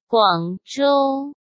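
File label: "Guangzhou", Chinese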